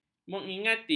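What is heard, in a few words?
"mengingati", Malay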